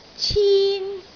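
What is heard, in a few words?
"cin1", Cantonese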